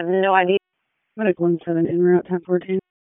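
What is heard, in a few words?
Public Safety Tactical, p25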